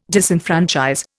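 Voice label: "disenfranchise", Indian English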